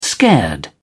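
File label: "scared", British English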